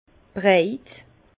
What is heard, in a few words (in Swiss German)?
"breit", Bas Rhin; vin; ample